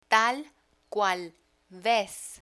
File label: "tal cual vez", Spanish